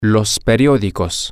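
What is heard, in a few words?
newspapers, Spanish, male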